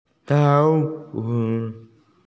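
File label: "dance", Mandarin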